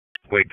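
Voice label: Sheriff's Office Dispatch; WSO DISP 3; wake; p25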